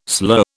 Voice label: "slur", British

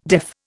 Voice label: "deaf", Indian

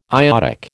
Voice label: "iodic", American

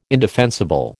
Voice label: "indefensible", American

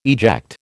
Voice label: "eject", American

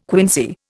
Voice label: "quinsy", Indian

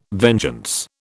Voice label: "vengeance", British

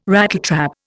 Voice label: "Rattletrap", Indian

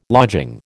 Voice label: "lodging", American

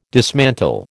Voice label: "dismantle", American

